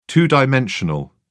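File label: "two-dimensional", English, British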